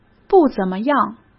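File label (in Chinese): "不怎么样", Mandarin Chinese; chinese flashcards; hsk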